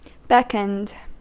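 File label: "beckoned", US English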